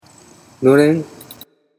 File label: "noren", Japanese